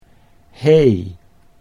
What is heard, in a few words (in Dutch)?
"hee", Dutch